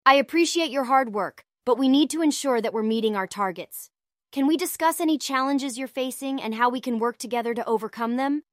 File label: synthesized audio